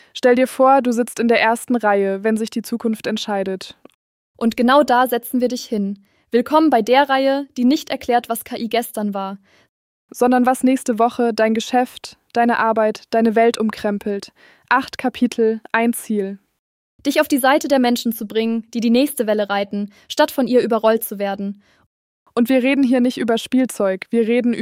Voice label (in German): werbung